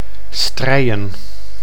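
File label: "Strijen", Dutch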